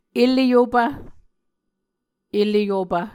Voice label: "ele yopv", muscogee